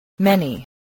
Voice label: "many", spelling voice